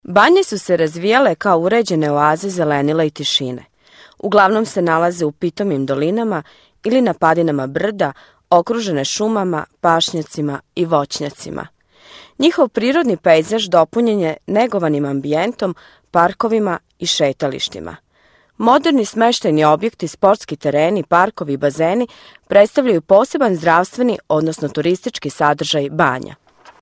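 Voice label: serbian female voice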